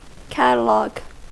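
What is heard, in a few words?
"catalogue", US English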